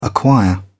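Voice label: "acquire", British English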